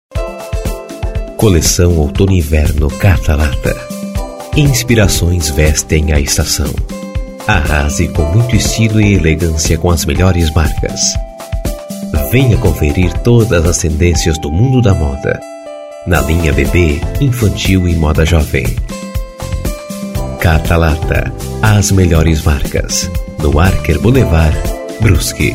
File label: spot; catalata